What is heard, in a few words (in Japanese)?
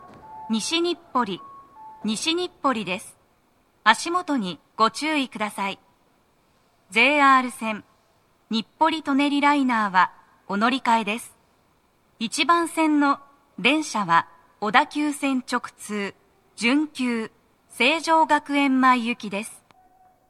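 到着放送, 女声; 放送は「準急 成城学園前行」です。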